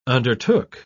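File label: "undertook", British English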